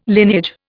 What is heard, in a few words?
"lineage", Indian